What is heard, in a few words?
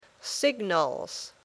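"signals", English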